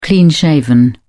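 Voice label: "clean-shaven", English, uk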